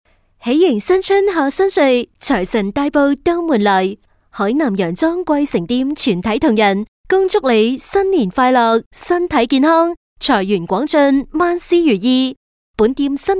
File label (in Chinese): 粤女 粤语彩铃; 海南羊庄桂城店